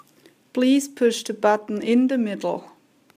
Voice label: Button Middle Woman